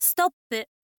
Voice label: info lady stop